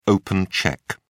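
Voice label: "open cheque", British English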